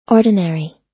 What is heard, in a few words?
"ordinary", us